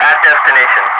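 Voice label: "at destination", English speech, Wingman; DCS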